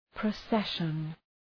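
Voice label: "procession", English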